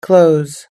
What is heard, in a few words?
"close", us english